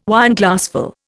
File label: "Wineglassful", Indian